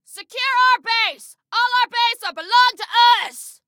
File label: Base Secure, Female